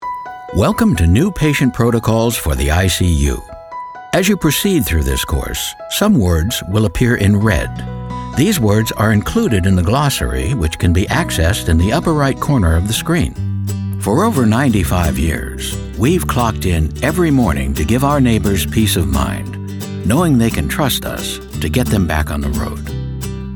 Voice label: men elearning; Deep, rich, and mature.